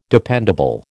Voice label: "dependable", American